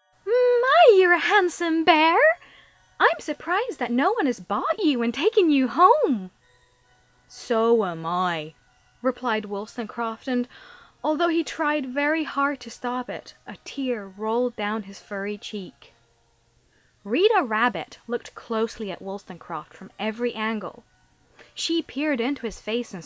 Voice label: female english usa accent childrens audiobook narration